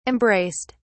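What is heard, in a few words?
"embraced", British English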